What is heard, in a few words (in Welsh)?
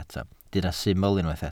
"syml" Welsh